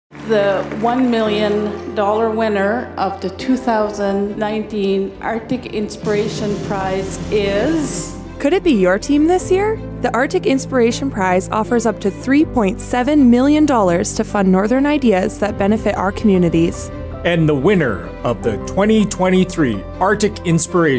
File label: Spot Voice Enhanced Ending